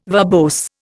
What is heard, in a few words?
"verbose", Indian